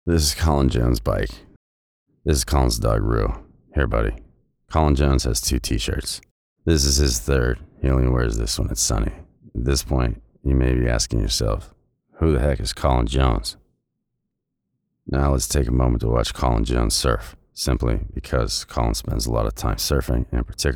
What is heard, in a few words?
comedic male voice over; surfboards